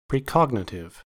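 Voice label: "precognitive", US English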